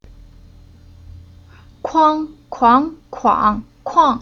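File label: "Kuang", Chinese syllable; Уроки фонетического блока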